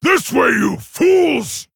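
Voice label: Male Centaur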